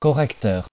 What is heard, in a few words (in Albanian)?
"correcteur", frengjisht